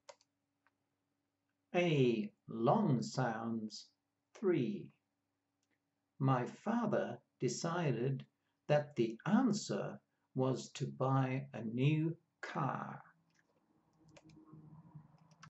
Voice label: A long sounds, pronunciation of australian english